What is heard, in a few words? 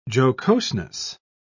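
"jocoseness", British English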